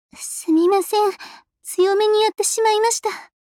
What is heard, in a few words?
Voice victory